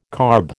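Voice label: "carb", American English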